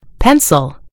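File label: "pencil", British English; gcompris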